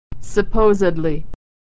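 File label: "supposedly", American English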